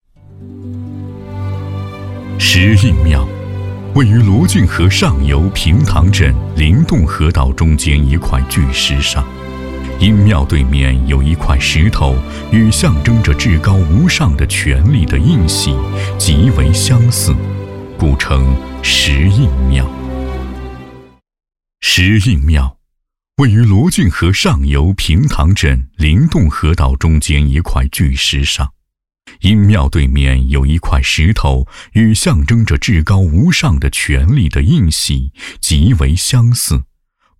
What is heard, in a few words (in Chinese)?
男 文物保护; 石印庙